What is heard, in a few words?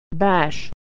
"bash", pronunciation